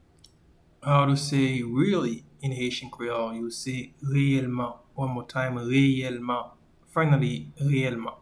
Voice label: Really in Haitian Creole, Reyelman